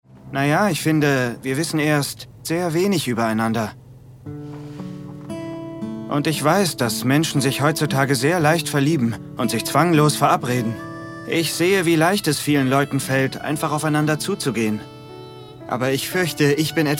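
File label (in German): freundlich zurückweisend; Hometown Cha-Cha-Cha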